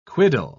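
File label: "quiddle", British English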